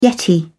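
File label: "yeti", British English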